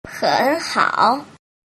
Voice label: "henhao", mandarin